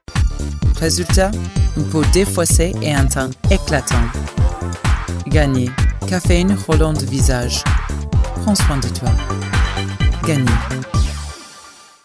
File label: French